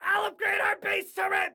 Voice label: Upgrade Self Turret, Excited Guy

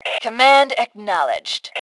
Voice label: Command Acknowledged, Female; T2 Female 03

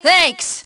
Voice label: Team Thanks, Female; T2 Female 03

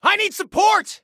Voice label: Need Support, Soldier Male; Soldier Male 01

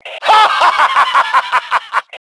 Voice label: Taunt Obnoxious, Male; T2 Male 05

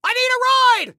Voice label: Need Ride, Soldier Male; Soldier Male 01

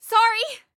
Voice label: Team Sorry, Female; Female 02

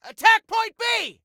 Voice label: attack, male; T2 Male 03

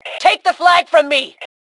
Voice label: Flag Take, Female; T2 Female 04

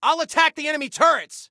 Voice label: Self Attack Turrets, Soldier Male; Soldier Male 01

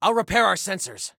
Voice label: Self Repair Sensors, Soldier Male; Soldier Male 01

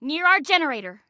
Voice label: Warn Enemy Near Our Generator, Female; Female 02

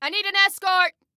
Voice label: Need Escort, Female

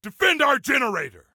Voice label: Defend Generator, Soldier Male; Soldier Male 03

